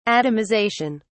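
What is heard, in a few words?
"atomisation", English, uk British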